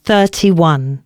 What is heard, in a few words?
announcements, female